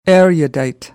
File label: "erudite", American English